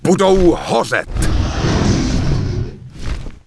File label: Human Male Open Fire; wowpreklad; czwow; HumanVocalMale